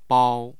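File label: "bao", Chinese, first tone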